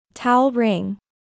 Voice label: "Towel ring", English; bathroom